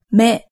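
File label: "me", Japanese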